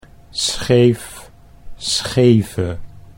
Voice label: "scheef scheve", Dutch